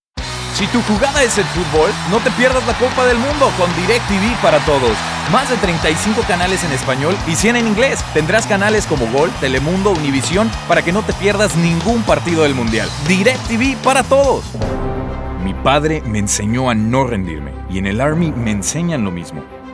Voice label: Spanish, males